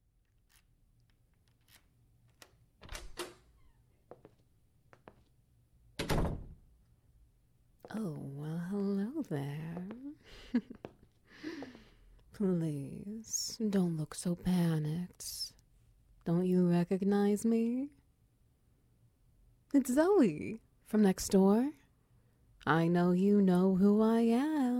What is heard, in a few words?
SFX Wet Noises; The Spare Key